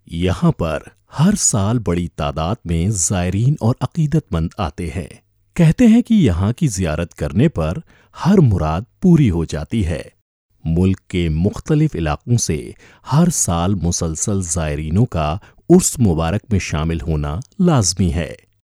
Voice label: urdu

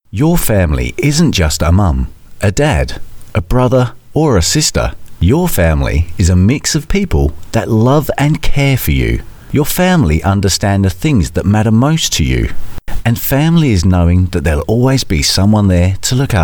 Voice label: Radio Commercial

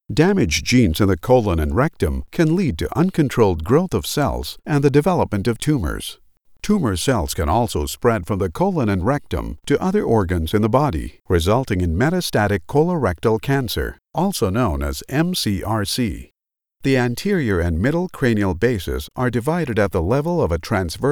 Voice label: Medical Narration

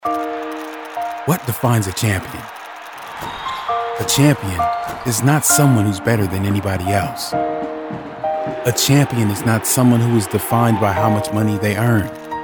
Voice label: roofing spot; Champion

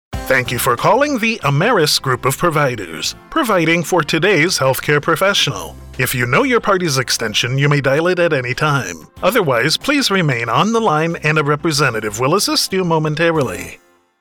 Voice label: IVR Phone message; Ameris

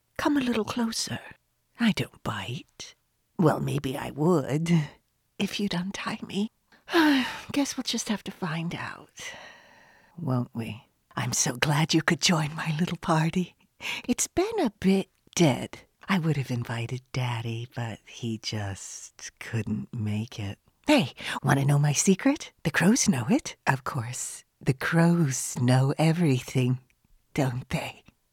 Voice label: video game character

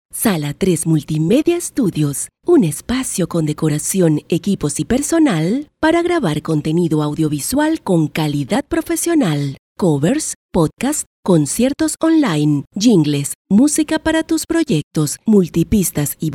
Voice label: Television Spots

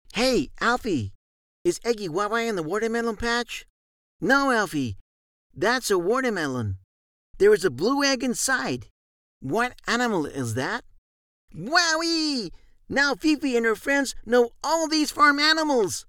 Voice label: childrens character

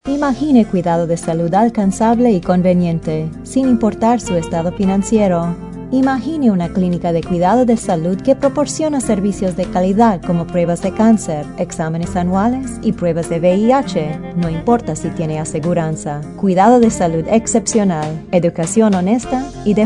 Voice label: Radio PSA; Planned Parenthood

